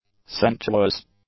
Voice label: "sensuous", English; adjectives

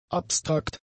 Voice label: "abstrakt", german; adjectives

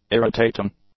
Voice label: "irritating", english; adjectives

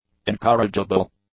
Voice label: "incorrigible", English

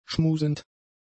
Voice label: "schmusend", German; adjectives

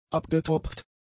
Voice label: "abgetropft", German; adjectives

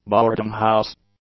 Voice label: "boarding-house", English; nouns

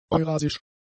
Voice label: "eurasisch", German; adjectives

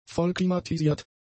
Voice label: "vollklimatisiert", German; adjectives

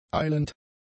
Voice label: "eilend", german; adjectives

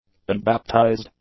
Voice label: "unbaptized", English; adjectives

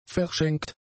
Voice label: "verschenkt", German; adjectives

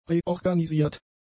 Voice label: "reorganisiert", German; adjectives

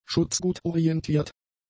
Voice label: "schutzgutorientiert", German; adjectives

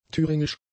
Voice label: "thüringisch", German; adjectives

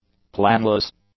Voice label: "planless", english; adjectives

